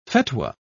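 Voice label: "fetwa", English, British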